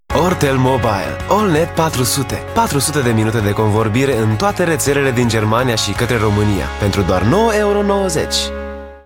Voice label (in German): Werbung; Ortel Mobile